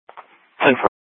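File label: Sheriff, Parks, Sheriff radio scanner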